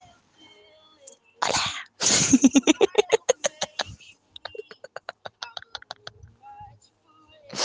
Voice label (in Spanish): saludo inglesito